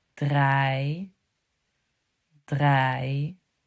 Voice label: "draai" "draai", vergelijkende woorden

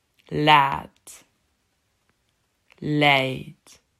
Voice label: "laat-leid", Dutch

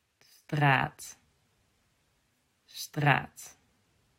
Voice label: "straat" "straat", vergelijkende woorden

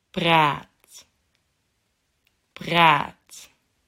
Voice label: "praat" "praat", vergelijkende woorden

